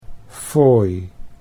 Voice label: "fooi", Dutch

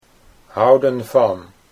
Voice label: houden van, Dutch

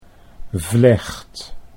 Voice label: "vlecht", Dutch

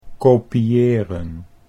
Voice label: "kopieren", Dutch

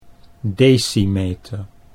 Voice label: "decimeter", Dutch

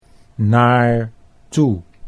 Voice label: "naar toe", Dutch

